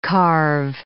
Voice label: "carve", English

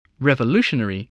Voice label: "revolutionary", English

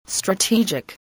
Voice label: "strategic", spelling

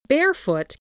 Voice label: "barefoot", English

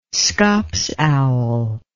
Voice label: "scops owl", English

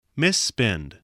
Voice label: "misspend", voice, spelling

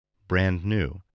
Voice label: "brand-new", spelling

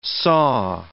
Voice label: "saw", English

